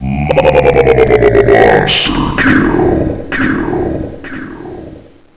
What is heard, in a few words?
score, announcer; hl1mp; XDM